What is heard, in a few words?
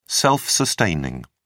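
"self-sustaining", British English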